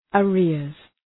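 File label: "arrears", English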